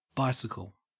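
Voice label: "bicycle", Australian English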